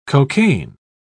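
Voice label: "cocain", British English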